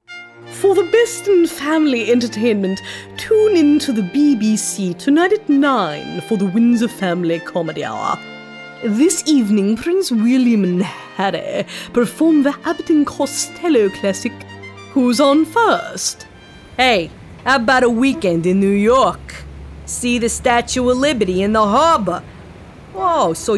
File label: Character